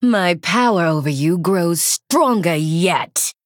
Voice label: Female Blood Elf Play

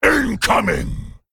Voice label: Male Worgen Attack

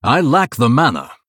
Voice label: Male Blood Elf

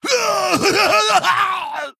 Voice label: Male Ghoul Death

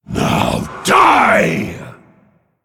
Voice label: Male Pit Lord Attack

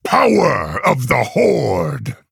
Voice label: Male Orc Attack

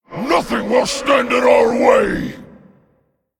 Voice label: Male Overfiend Play

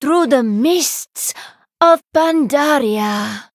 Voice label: Female Draenei Trigger

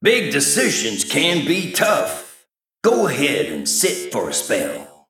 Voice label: Male Mech Idle

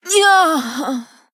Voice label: Female Night Elf Death

